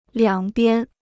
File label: "两边", Chinese